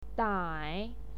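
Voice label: "dai", Mandarin Chinese, third tone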